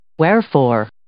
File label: "wherefore", English